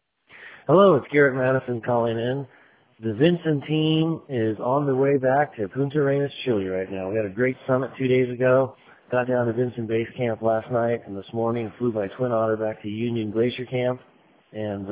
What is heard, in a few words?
voicemail